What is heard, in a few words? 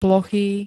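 "plochý", Slovak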